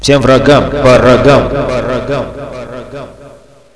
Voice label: win, Russian; bot, radio

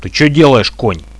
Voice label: friendly fire, Russian; bot radio

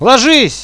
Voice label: get down, hostage reactions

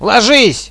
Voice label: get down, hostage reactions

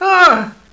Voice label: awww, hostage reactions

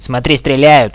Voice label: look shooting, hostage reactions

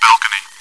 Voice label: "balcony", radio bot; czero

